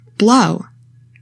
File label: "blow", English; 3000 words